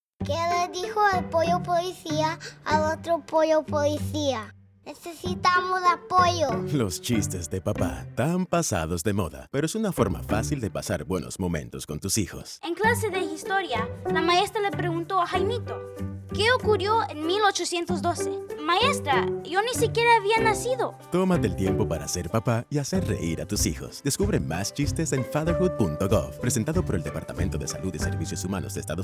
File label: Groan Radio SPA